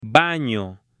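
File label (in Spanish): "BANO", hombre; MUEBLES; AppLenguaje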